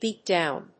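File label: "beat down", English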